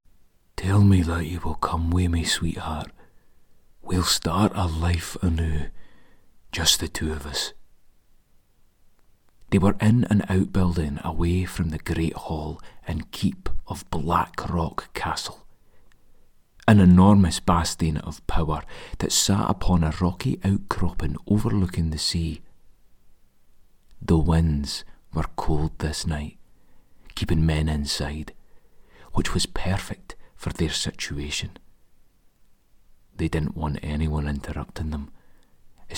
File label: Erotic Sample